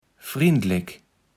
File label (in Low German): "frindlek", kaevels platt